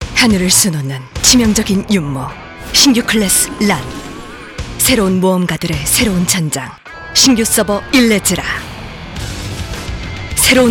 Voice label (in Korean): 게임 힘있는 강한 중저음톤 30대; 검은사막